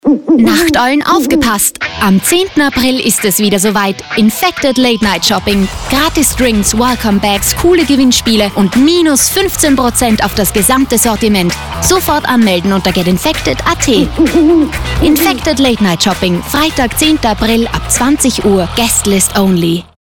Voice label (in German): Werbung; HF Infected